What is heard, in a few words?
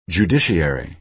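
"judiciary", American English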